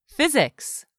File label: "physics", English; 3000 words